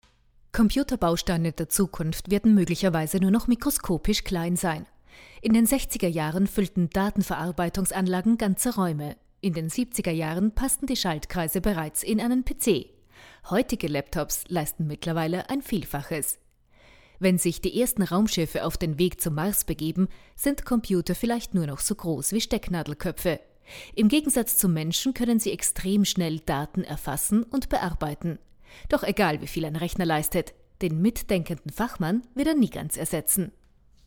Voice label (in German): doku; Mars